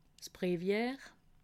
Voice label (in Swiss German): "brevier", alsace